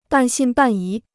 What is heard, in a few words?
"半信半疑", Chinese